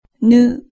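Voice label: "nu", Japanese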